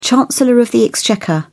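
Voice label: "chancellor of the exchequer", British English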